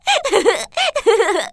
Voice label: voice Fun